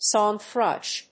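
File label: "song thrush", English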